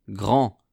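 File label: "grand", French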